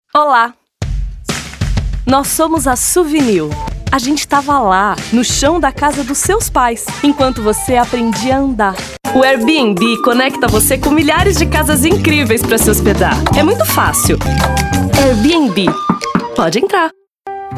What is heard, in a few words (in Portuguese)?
locução amiga